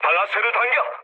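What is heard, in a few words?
Korean attack position mood low; War Thunder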